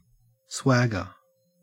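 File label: "swagger", Australian English; pronunciations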